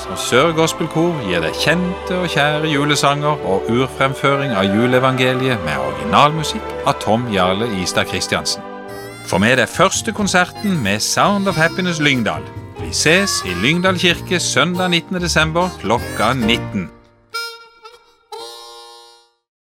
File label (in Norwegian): Reklame julekonsert; Lyngal